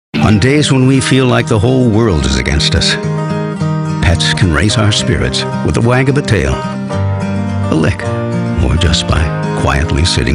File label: Narration Voice Over